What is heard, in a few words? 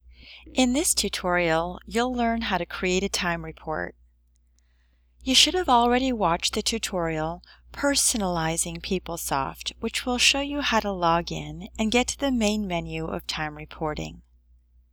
narration smile